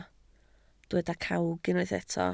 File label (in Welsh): "cawg", Welsh